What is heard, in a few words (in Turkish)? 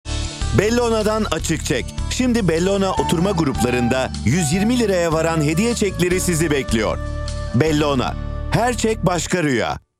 kampanya tempolu, seslendirme